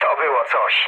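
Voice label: trick mood low, speech Polish; War Thunder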